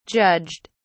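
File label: "judged", British English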